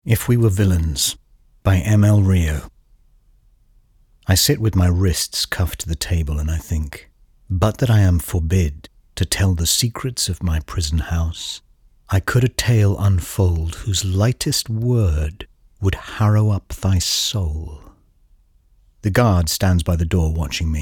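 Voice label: British audiobook, mann; engelsk-uk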